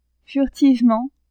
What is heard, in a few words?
"furtivement", French; adverbs